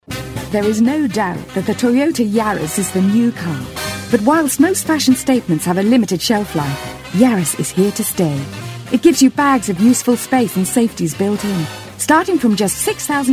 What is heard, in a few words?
Commercial; Toyota